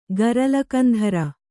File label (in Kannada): "ಗರಲಕಂಧರ", kannada